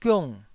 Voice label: "giung4", Taiwanese syllable

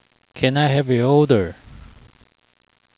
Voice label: intonation bad

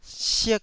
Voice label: "sik1", taiwanese

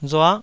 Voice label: "rua9", taiwanese

